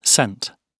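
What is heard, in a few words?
"cent", British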